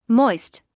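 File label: "moist" English, British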